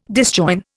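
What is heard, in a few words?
"disjoin", Indian English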